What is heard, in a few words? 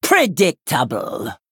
Female Troll Attack